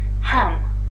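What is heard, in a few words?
"ham" British English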